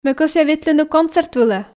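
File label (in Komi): ме кӧсъя ветлыны концерт вылӧ, Komi, female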